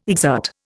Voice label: "exert", Indian